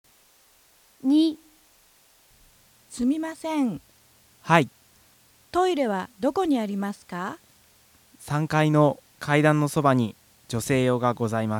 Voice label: Conversation